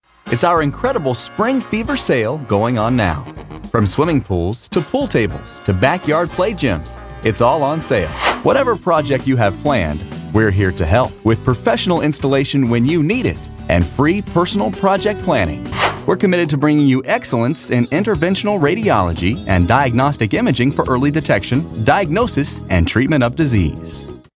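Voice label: male On-Hold Messages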